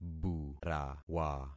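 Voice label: "burawa", concatenated syllables; Affixing experiment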